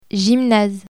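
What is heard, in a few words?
"gymnase", French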